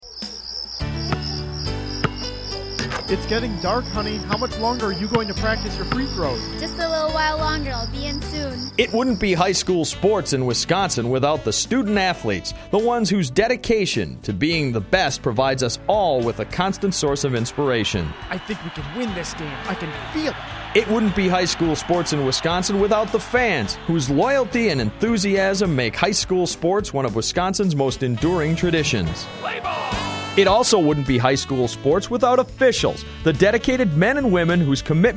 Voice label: audio spot